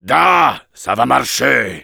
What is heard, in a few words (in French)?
positive vocalization; Heavy; fr